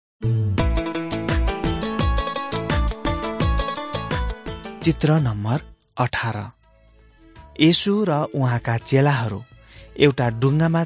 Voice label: jesus teacher and healer nepali